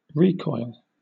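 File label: "recoil", British; noun; pronunciations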